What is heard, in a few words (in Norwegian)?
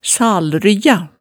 "salryggja", hemsedalsmaalet